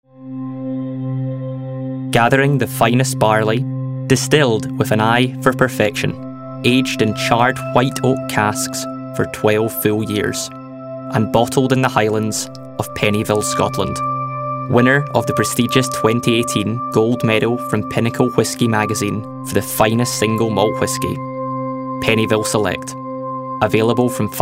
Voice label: Scottish English Whiskey TVC, English Scottish Male